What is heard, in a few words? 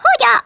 WHAT THE, Speech Hungarian; Worms Armageddon